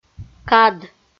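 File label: "кад" Komi, female; kpv audio dict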